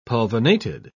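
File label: "pulvinated", British English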